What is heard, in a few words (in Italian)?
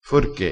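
"forche", vocabolario dialettale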